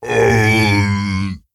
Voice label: Ogre Emote Thinking

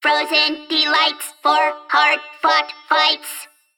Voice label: Female Mech Play

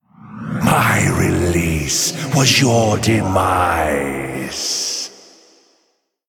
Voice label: Male Spirit Play

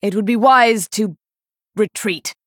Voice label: Female NightElf Concede; Elise

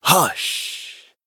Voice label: Male Troll Attack

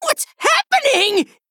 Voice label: Male Gnome Attack

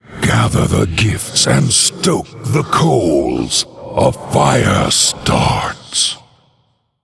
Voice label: Male Undead Emote Winstreak

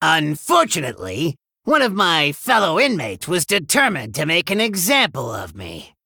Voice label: Male Gnome

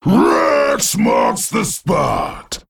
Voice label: Male Felguard

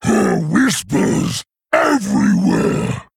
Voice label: Male Quillboar Play

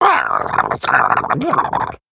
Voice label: Male Murloc

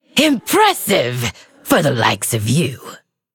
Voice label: Female Undead Emote Well Played

